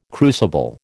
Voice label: "crucible", American